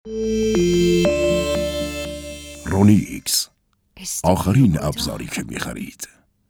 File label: commercial